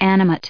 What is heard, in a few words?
"animate", us